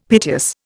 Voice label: "piteous", Indian; Dictionary